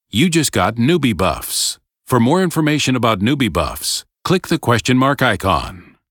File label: tutorial voice; lineage2